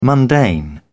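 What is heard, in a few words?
"mundane", British English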